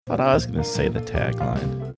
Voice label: quietly saying thought i was gonna say the tagline